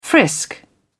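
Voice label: "frisk", British English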